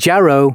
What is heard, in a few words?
announcements male